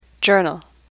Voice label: "journal", English; AWL